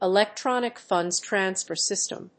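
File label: "electronic funds transfer system", English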